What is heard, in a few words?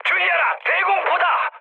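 Korean damaged aa mood high, speech; War Thunder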